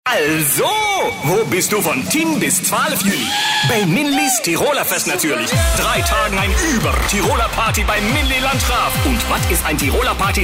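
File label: NL hard sell, Dutch All Round Voice Male; RC Tiroler Fest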